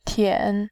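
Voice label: "tian", Mandarin Chinese, third tone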